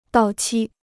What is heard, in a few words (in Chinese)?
"到期", Chinese